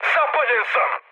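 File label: Serbian on fire mood high, speech; War Thunder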